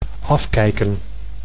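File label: "afkijken", Dutch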